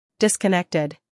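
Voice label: "scandal", American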